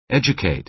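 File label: "educate", American English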